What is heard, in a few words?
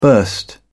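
"burst out", British English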